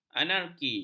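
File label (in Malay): "anarki", Malay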